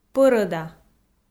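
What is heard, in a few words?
"пӧрӧда", Komi, female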